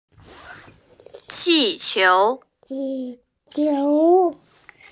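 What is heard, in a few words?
"qi4qiu2", Mandarin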